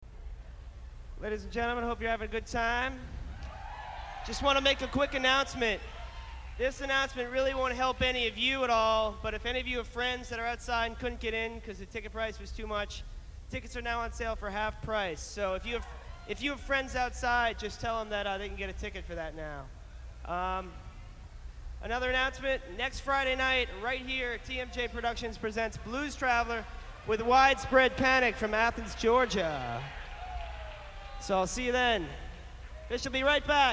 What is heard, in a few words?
announcements